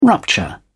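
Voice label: "rupture", British English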